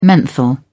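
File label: "menthol", British English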